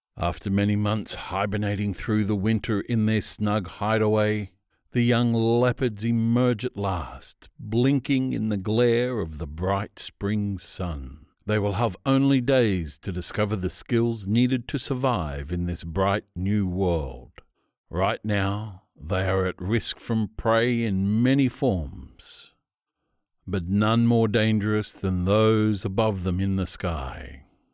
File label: Documentary Narrator